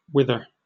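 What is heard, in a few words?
"wither", British English; pronunciations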